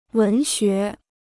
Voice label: "文学", Chinese

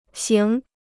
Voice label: "型", Chinese

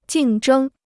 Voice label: "竞争", Chinese

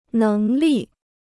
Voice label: "能力", Chinese

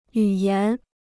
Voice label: "语言", Chinese

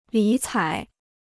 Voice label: "理睬", Chinese

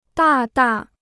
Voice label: "大大", Chinese

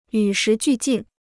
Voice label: "与时俱进", Chinese

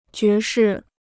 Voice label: "爵士", Chinese

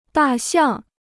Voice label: "大象", Chinese

